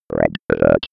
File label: old computer voice